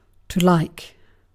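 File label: "like", British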